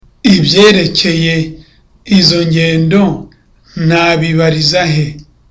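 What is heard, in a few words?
Kinyarwanda, Relaxed; Ibyerekeye izo ngendo nabibarizahe?; Where can I get traveling information from?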